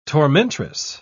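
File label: "tormentress", British English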